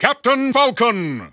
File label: English Announcer; Captain Falcon; SSBB